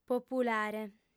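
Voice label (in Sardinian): "populare", female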